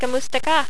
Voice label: tagalog greeting